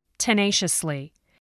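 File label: "tenaciously", English word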